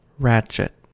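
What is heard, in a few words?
"ratchet", US English; pronunciations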